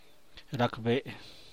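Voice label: "rash", San Mateo Macuilxochitl Zapotec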